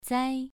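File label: "zai", Mandarin Chinese, second tone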